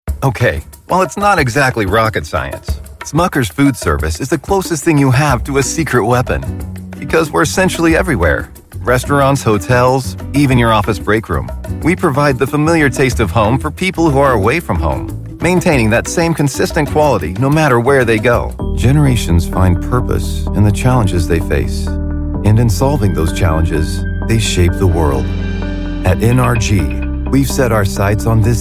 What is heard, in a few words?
Corp Narration